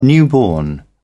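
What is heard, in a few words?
"newborn", British English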